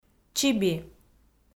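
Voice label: "чиби", Komi, female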